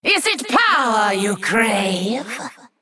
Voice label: Female Naga Play

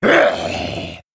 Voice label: Male Gnoll Attack

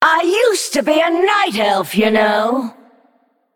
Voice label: Female Naga

